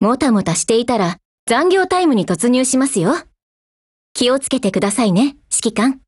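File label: HELLO Japanese, Voice; GF Alarm; JS9mm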